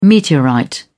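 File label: "meteorite", English, British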